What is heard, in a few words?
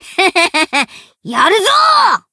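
Voice Japanese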